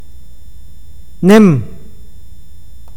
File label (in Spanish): grande, awakateko